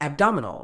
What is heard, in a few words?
"abdominal", Medical English